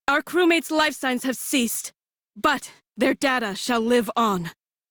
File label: Crew Died Female en; Railjack Crew; Cephalon Suda